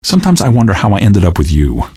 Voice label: question, male; Garry's Mod; hl2